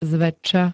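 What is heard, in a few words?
"zväčša", Slovak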